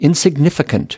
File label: "insignificant", us